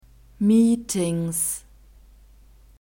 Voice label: "meetings", german